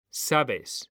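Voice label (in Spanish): "sabes", male; mekanta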